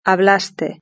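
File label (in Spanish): "hablaste", Spanish; verb-conjugator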